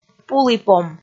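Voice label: "пуліпом", Komi, female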